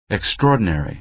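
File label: "extraordinary", American English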